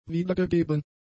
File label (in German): "wiedergegeben", German; adjectives